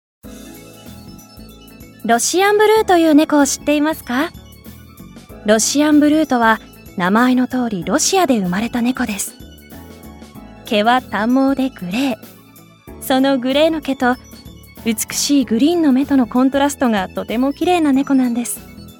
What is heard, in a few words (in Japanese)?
ナレーション